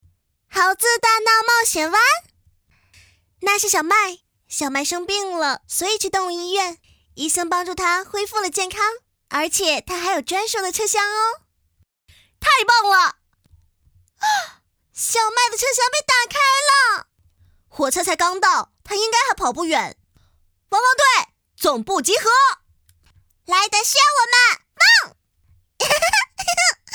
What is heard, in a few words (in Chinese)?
男女童台湾腔; 汪汪队